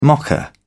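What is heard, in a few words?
"mocha", British English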